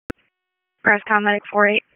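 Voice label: EMS Dispatch; wake p25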